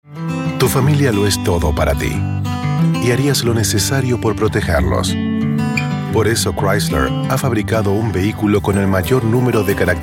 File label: Spanish male, commercial Spanish